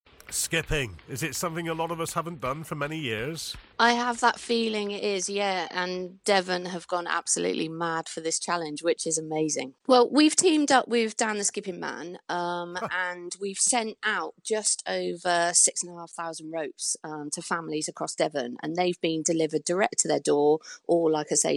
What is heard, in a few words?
advert; ACTIVE DEVON